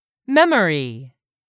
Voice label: "memory", English; 3000 words